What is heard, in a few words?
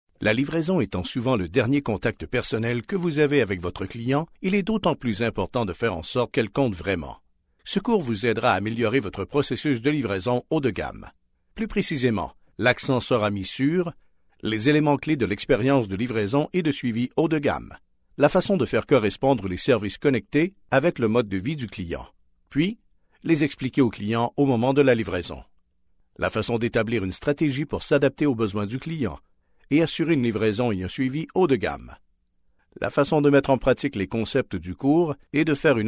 male french canadian e-learning; quebecois